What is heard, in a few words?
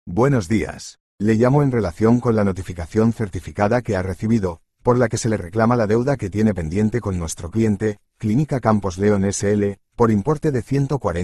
audio call